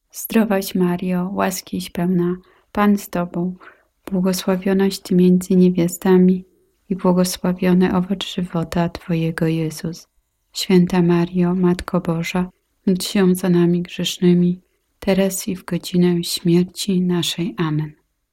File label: hail mary polish